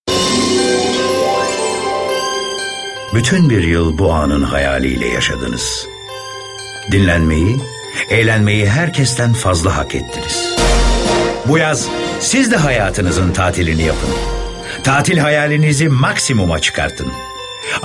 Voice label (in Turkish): Sicak Sakin